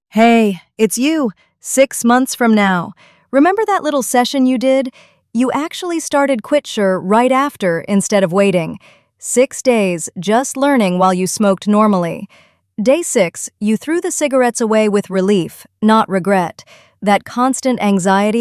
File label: female; voicemails